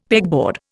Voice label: "pegboard", Indian; Dictionary

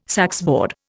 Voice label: "saxboard", Indian

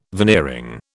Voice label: "veneering", British

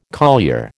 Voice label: "collier", American

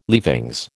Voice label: "leavings", American

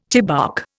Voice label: "chibouk", Indian

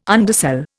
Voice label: "undersell", Indian

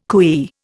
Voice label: "cooee", Indian

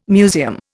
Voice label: "museum", Indian

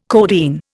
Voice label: "codeine", Indian

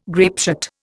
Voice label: "grapeshot", Indian

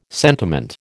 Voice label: "sentiment", American

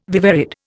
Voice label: "viverrid", Indian

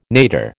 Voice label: "nadir", American

